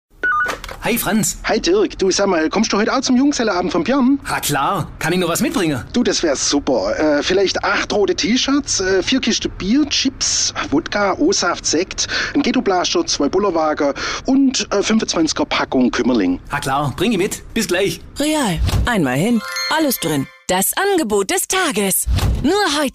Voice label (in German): Werbung; Kuemmerling; REWE